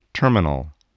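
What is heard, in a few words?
"terminal", English word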